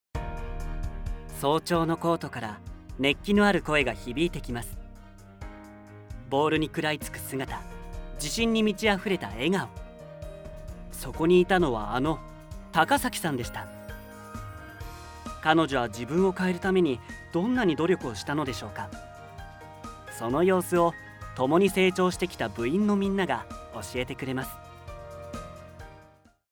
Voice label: 高校スポーツドキュメンタリー

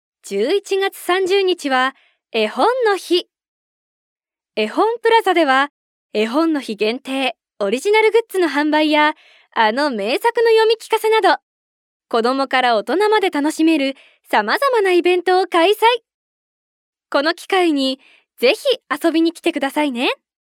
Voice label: 明るめ

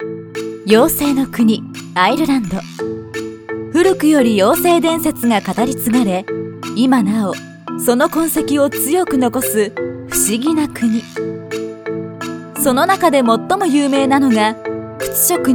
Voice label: ナレーション

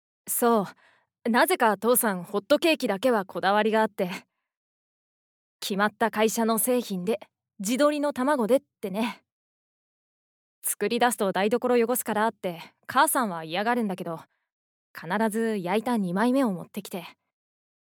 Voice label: 中学生男の子

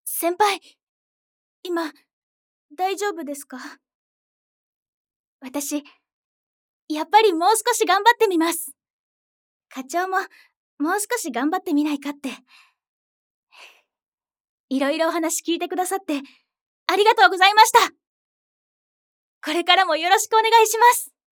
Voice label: 後輩女性

